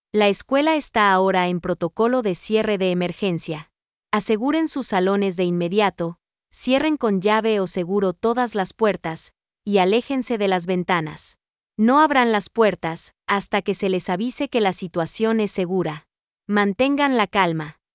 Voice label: emergency Lockdown, voice announcement